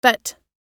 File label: "vet", us